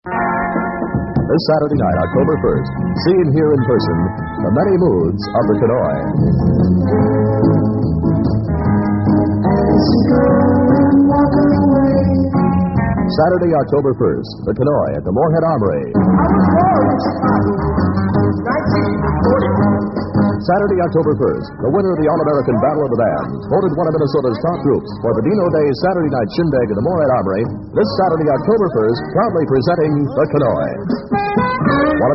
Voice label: concert promo; psychedelic promos and radio spots